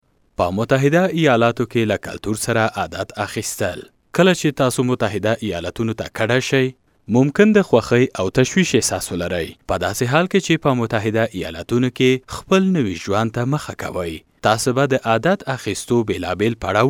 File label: pashto male